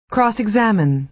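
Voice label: "cross-examine", American English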